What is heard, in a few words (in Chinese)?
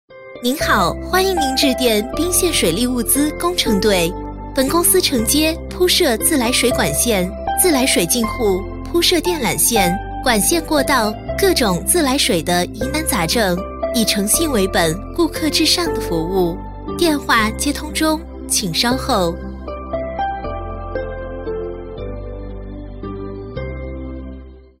女 彩铃, 国语女声配音; 宾县水利